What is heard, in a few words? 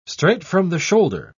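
"straight-from-the-shoulder", British English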